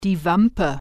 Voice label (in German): "spare tire", German